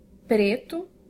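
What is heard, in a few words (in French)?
"noir", French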